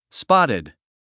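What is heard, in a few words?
"spotted", English word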